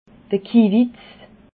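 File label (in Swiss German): "kiwitz", Alsatian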